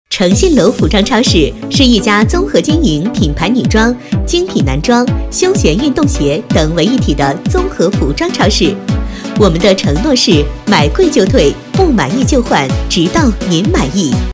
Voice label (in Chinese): 女国 普女 广告 服装超市